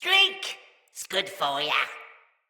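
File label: See Player Corrupted Sprouts, en; Dialog; Battle Barks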